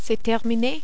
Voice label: "c est termine", French